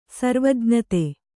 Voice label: "ಸರ್ವಜ್ಞತೆ", kannada

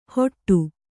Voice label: "ಹೊಟ್ಟು", kannada

